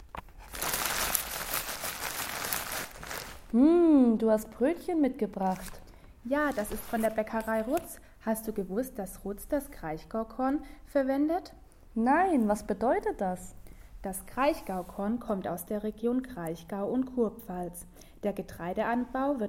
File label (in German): Podcast